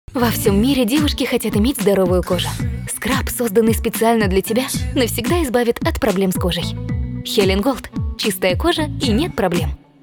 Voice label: реклама; скраб

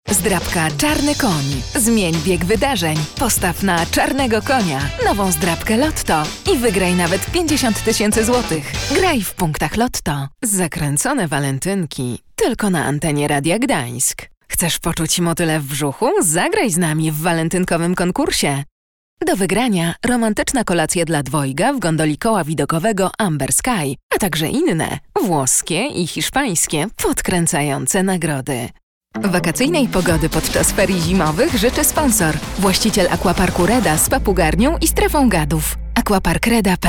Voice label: Женский польский

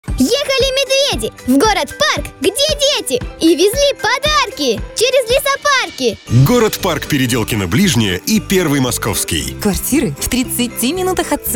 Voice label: ролик компании; АБСОЛЮТ НЕДВИЖИМОСТЬ

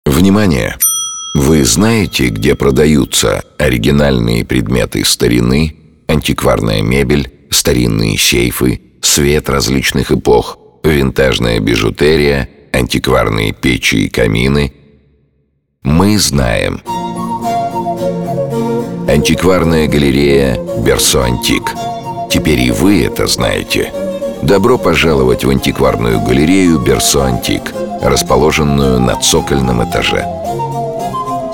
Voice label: реклама для торгового центра; Юнимолл галлерея Берсоантик; сценарий запись

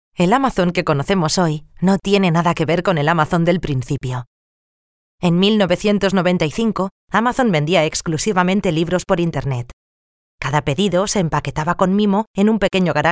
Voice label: Женский испанский

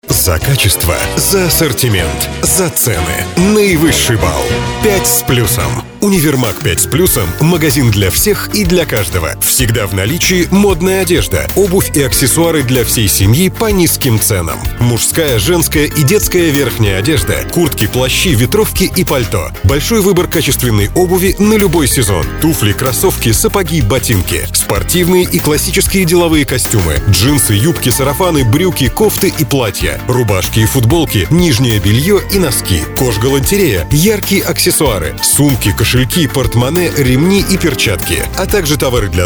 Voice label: реклама для Универмага диктор; Пять с Плюсом

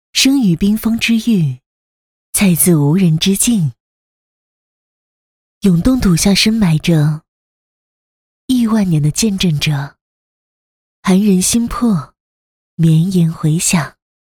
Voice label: Женский китайский

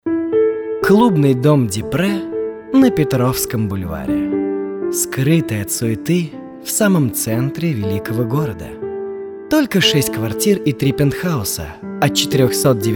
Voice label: РАДИО РЕКЛАМА

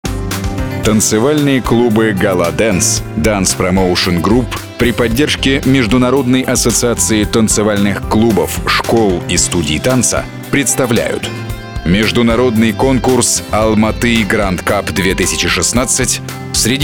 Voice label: аудиореклама диктор; конкурса Champions Ball 2016; запись голоса монтаж сведение

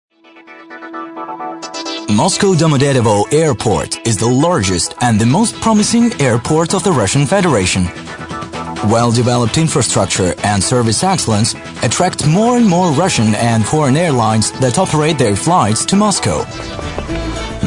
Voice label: Озвучка английский

